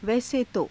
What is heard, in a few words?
"vaise to", hindi